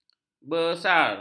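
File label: "besar", Malay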